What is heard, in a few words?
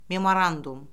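"memorandum", Azerbaijani; Orfoqrafiya Lüğəti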